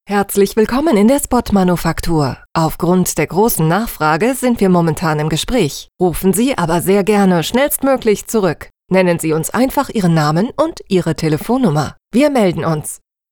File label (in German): tel ansage schnell